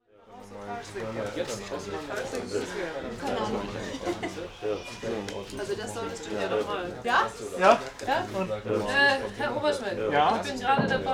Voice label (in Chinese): 嘈杂声(德语)